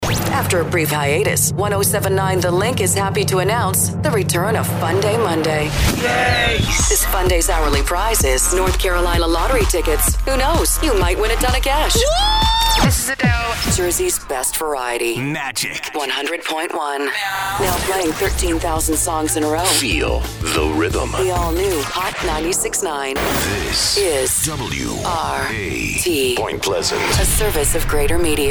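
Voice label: Imaging Female, Warm, Sultry, Professional, Friendly, Natural, Radio Imaging; Commercial Voiceover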